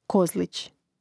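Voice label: "kozlić", Croatian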